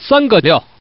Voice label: Man, tuple